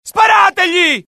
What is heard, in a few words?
alert, dialogue IT; mohaa; attack